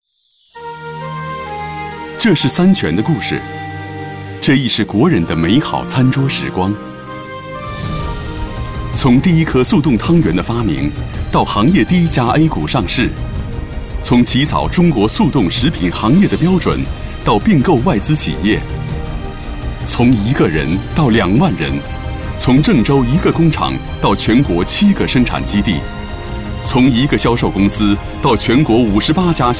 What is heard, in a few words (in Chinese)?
男 专题 舒缓; 三全汤圆